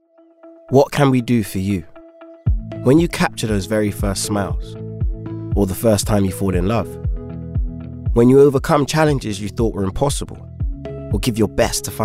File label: Commercial; FujiFilm